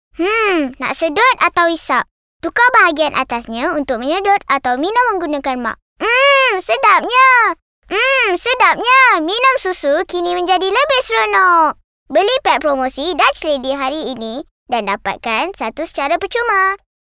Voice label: 8yr BM; Dutch Lady